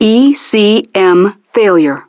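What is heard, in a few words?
"ECM Failure", English; Betty; DCS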